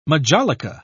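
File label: "majolica", British English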